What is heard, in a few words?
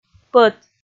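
"пӧт", Komi, female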